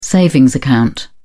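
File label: "savings account", English, British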